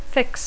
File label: "fix", English